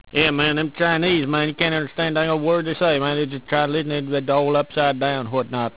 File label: chinese